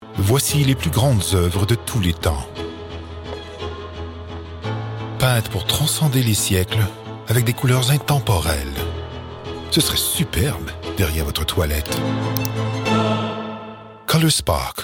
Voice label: male French Canadian compilation